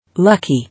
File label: "Lucky", English